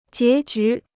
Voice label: "结局", Chinese